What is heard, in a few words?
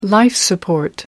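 "life-support", British English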